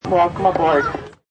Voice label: Stewardess Welcome, Stewardess says welcome to cabin at beginning of flight; Airplanes